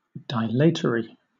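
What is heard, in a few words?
"dilatory", British English; pronunciations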